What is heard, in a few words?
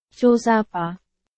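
Burmese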